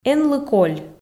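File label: "энлы коль", Komi, female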